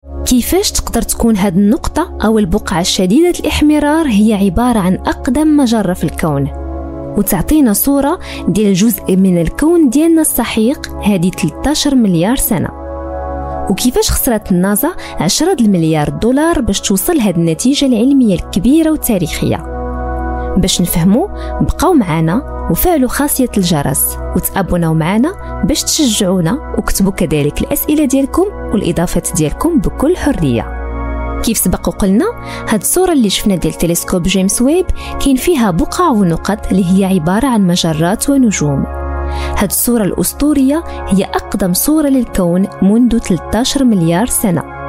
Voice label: arabic voice over female documentary

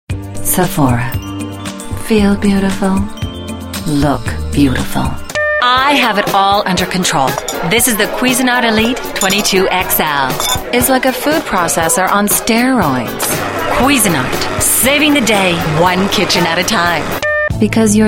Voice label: english american voice over female commercial

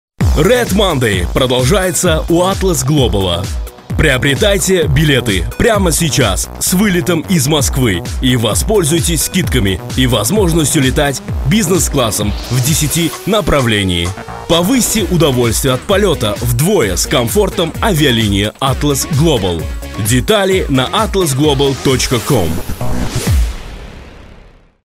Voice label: russian voice over male commercial

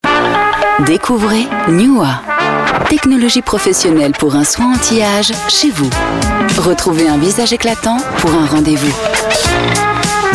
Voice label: french voice over female commercial

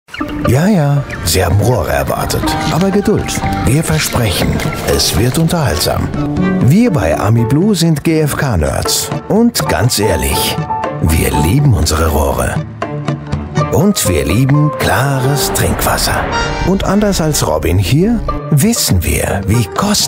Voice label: german voice over male narrator

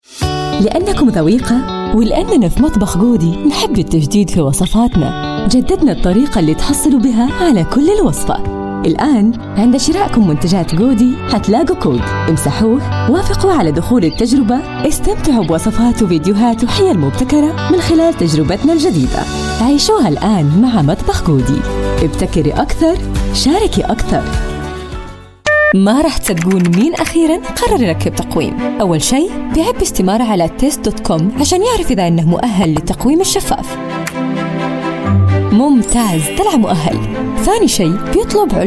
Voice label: arabic voice over female commercial

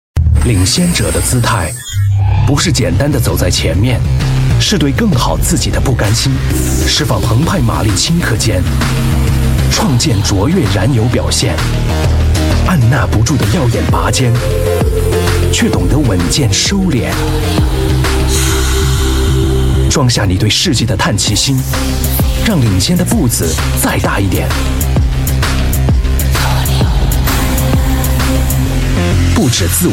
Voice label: chinese voice over male commercial

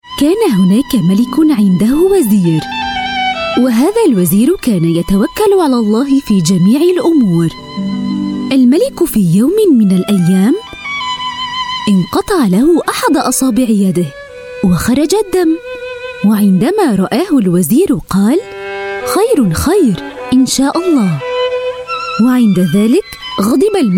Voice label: arabic voice over female audiobook

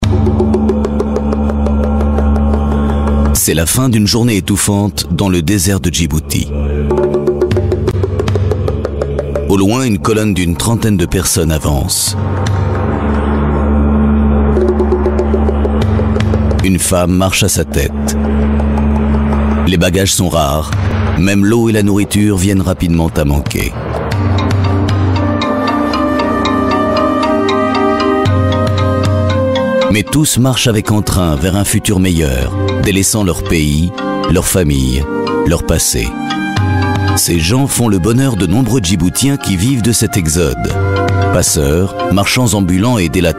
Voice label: french voice over male documentary

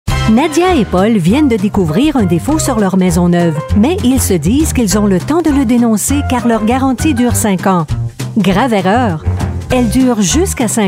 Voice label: french voice over female commercial

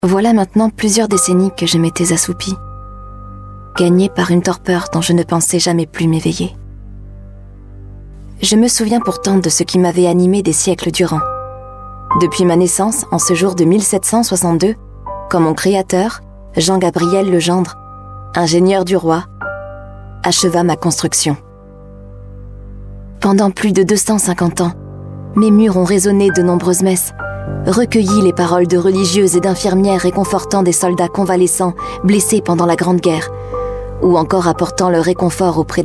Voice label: french voice over female documentary

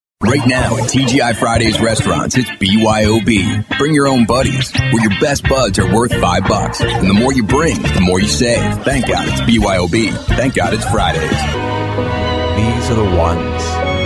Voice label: english american voice over male commercial

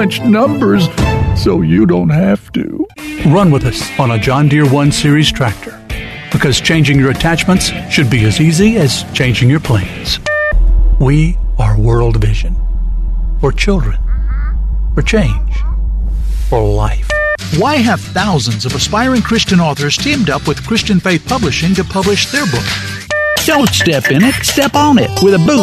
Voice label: english american voice over male

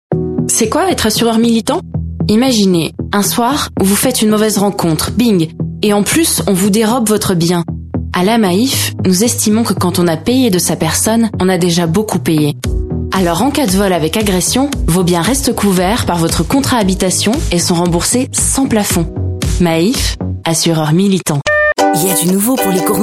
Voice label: french voice over female commercial

